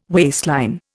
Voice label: "waistline", Indian